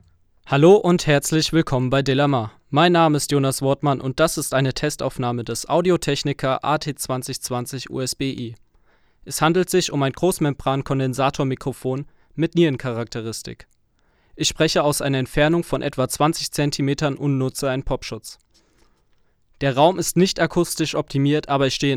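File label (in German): Audio-Technica AT2020USBi sprache male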